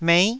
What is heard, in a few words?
"mei9", Taiwanese syllable